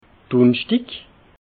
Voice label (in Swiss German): "dunnerschdig", alsace